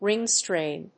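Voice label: "ring strain", English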